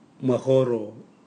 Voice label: neck, Raga